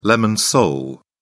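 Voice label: "lemon sole", British English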